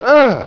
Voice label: pain